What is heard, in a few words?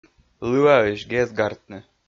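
"лыаысь гез гартны", Komi, male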